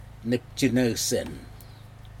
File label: "neptinousin", mouth, Aneityum